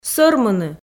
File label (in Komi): "сӧрмыны", Komi